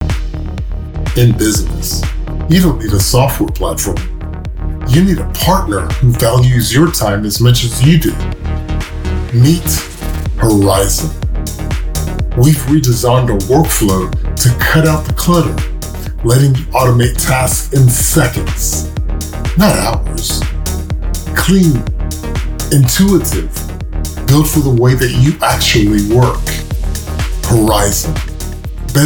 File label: English Commercial; Rode